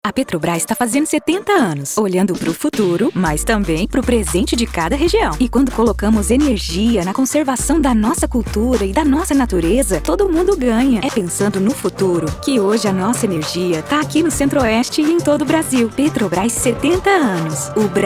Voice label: Regional Spot Goias; Petrobras

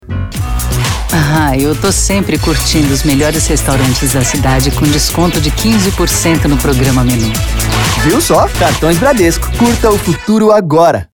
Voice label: voz madura chic; Bradesco

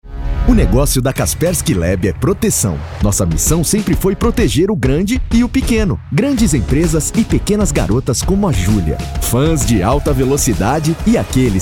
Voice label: Jovem

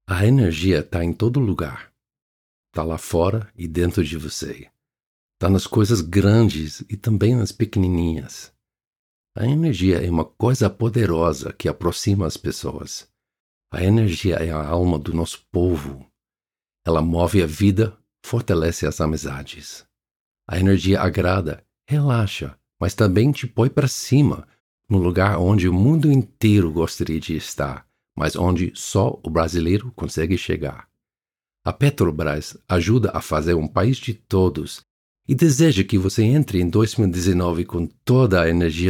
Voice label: Coloquial Português

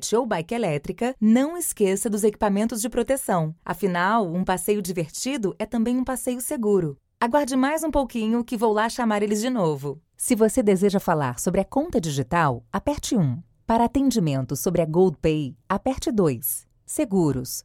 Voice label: URA Espera Telefonica

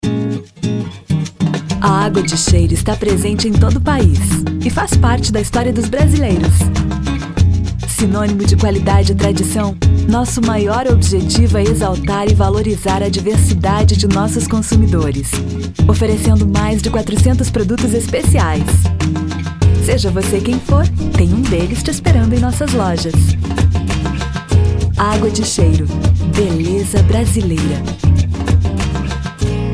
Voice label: Spot Institucional; Mantra ADC

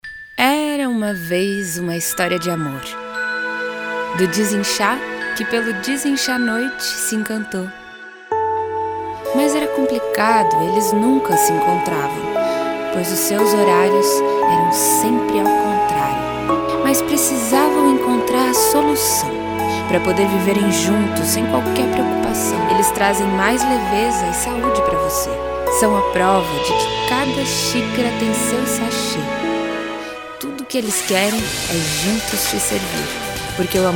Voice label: Narrativa

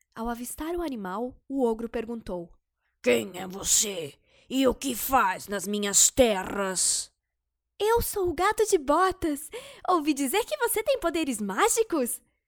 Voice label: Fábula voz caricata

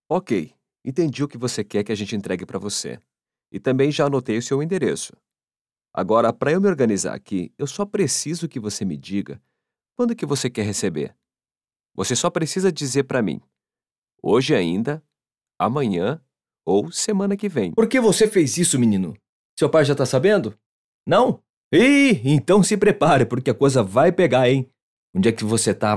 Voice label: URA e voz conversada

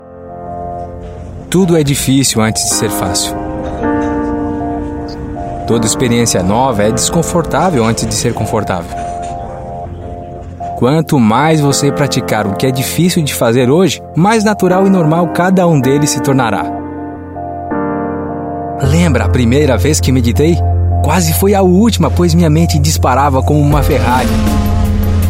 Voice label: INSTITUCIONAL CONVERSADO

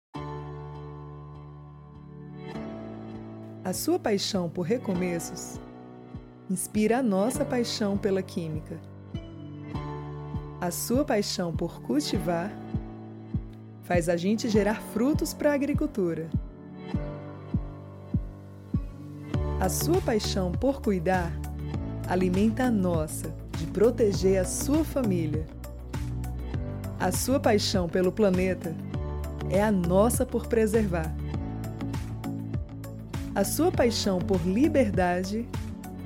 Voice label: spot, layout publicitario; Braskem

